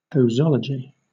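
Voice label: "posology", British English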